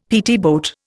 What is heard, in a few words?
"PT boat", Indian; Dictionary